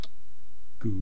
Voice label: "kuu", east cree syllabics